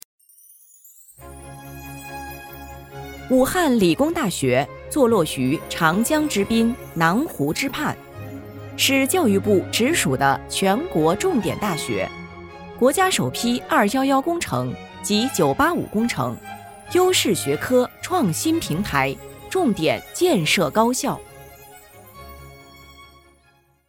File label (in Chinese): 宣传片